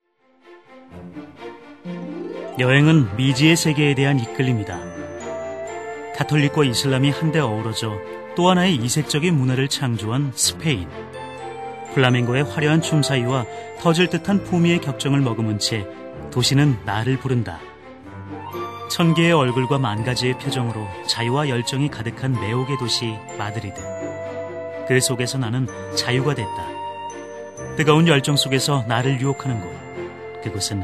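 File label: Korean, male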